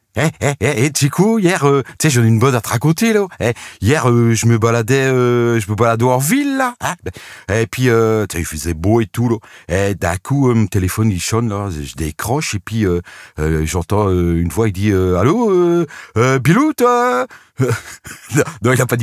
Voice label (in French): Accent chti; EH BILOUTTE